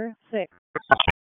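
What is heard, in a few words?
Police Special Operations Tactical; wake p25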